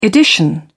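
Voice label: "edition", British English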